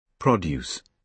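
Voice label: "produce", British English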